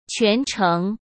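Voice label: "全程" Mandarin Chinese; hsk; chinese-flashcards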